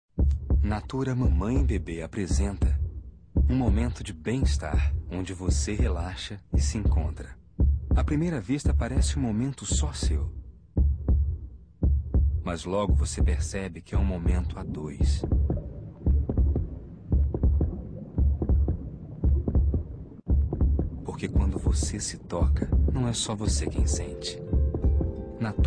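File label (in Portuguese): Locução, Sotaques do Brasil, Voz Padrão - Grave, Português - Brasileiro, Masculino; Locução para MERCEDES-BENZ - Sotaques do Brasil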